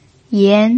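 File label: "yan2", Mandarin Chinese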